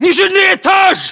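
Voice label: location first floor generic, battlechatter, Russian; localized english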